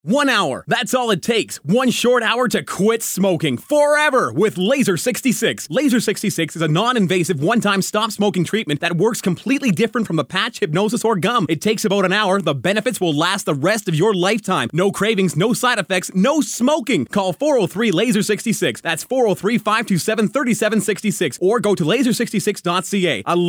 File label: radio ad